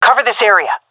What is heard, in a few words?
request hold, seal fem; vo; Counter Strike Model Pack; CS:GO